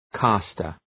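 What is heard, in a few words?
"caster", English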